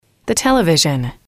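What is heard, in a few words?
"the television", English, female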